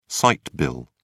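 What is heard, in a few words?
"sight bill", British English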